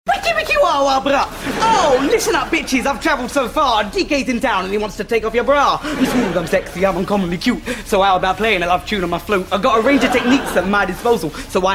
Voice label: Rap